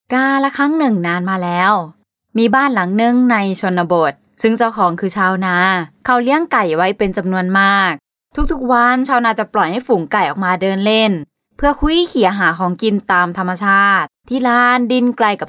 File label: Thai Female eLearning